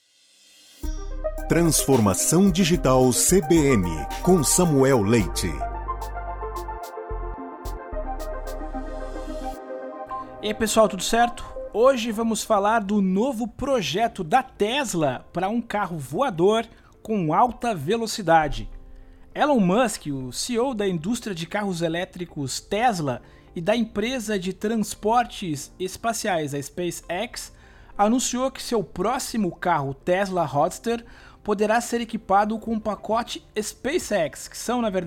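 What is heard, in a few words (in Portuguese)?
boletim de notícias; cbn; tesla roadster o carro super veloz que flutua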